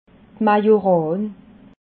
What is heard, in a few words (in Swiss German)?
"majoran", alsace